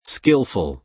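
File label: "skilful", American English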